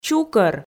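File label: "чукӧр", Komi, female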